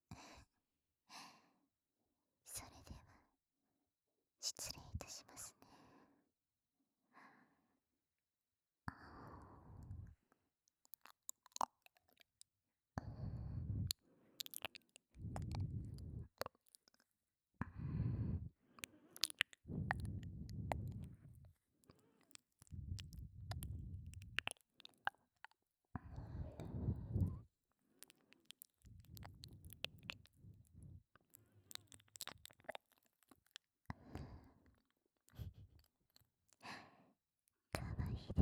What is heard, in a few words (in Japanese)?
たっぷり耳舐めご奉仕, ASMR, 耳舐め密着メイドさん, フォーリーサウンド